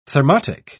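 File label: "thermotic", British English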